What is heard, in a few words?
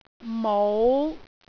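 "mou5", Cantonese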